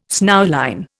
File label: "snowline", Indian